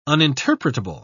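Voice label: "uninterpretable", British English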